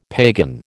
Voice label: "pagan", American

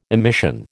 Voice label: "emission", American

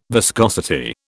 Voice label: "viscosity", British

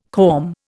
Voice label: "corm", Indian

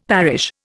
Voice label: "parish", Indian; Dictionary

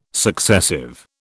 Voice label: "successive", British

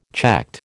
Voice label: "checked", American

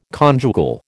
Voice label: "conjugal", American

